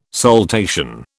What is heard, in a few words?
"saltation", British